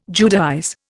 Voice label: "Judaize", Indian